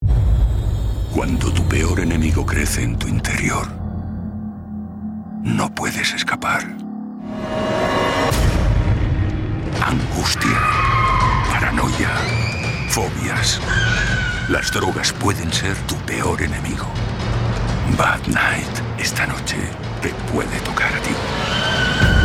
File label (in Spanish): Campañas Castellano